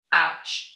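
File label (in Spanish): Voice Acting es F, Spanish, female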